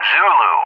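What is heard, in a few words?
"Zulu", English; JTAC; Alphabet; DCS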